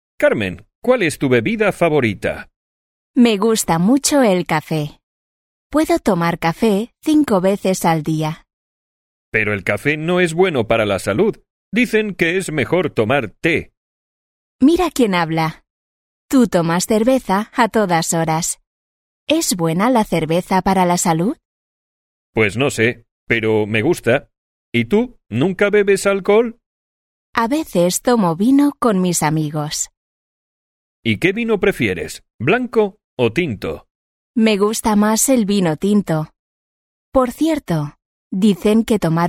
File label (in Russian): urok dialog